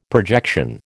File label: "projection", American